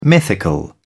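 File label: "mythical", British English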